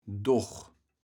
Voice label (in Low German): "doch", kaevels platt